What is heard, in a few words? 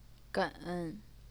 "gen3", Chinese pinyin